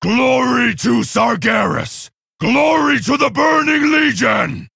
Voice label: Male Orc Bark; Neeru Fireblade